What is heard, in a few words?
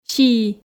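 "сии" Komi, female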